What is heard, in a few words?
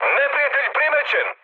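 Serbian enemy detected mood high; speech; War Thunder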